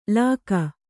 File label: "ಲಕ್ಕು", kannada